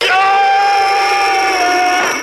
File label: "yo" LONG; ooh ahh